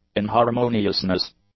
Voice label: "inharmoniousness", English; nouns